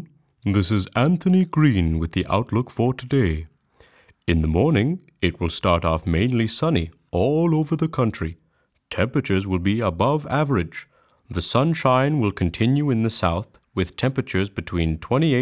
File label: A weather forecast